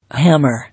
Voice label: "Hammer", English